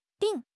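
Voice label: "ding4", Chinese; 汉语口语新时代-初级下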